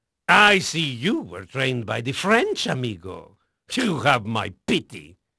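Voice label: Spanish male, voice; Pirates Of The Caribbean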